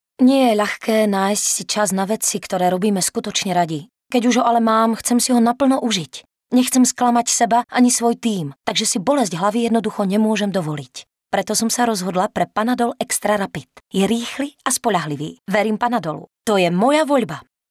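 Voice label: Female Slovak commercial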